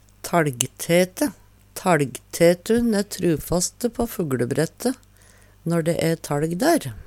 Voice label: talgtete, Numedalsmål; Substantiv hokjønn

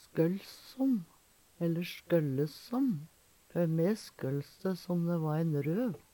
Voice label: skolls, Numedalsmål; skølls som; Eksempel på bruk Før me skølls dæ som dæ va ein røv.

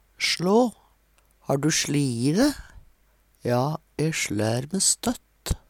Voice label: "sla", Numedalsmål

